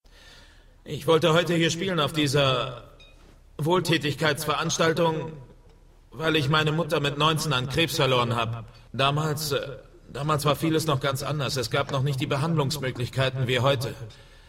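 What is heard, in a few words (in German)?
synchron, deutsch männer; sprecher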